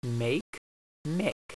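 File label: "make", Elizabethan English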